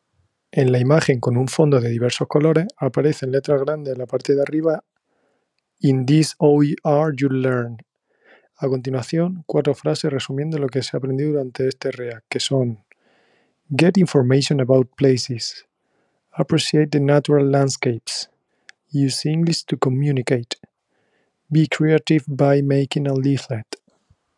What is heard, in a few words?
count to five in fre